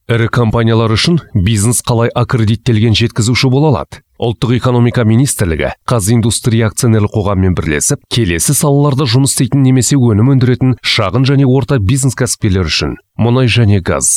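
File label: presentation Kazakh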